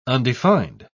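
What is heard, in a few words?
"undefined", British English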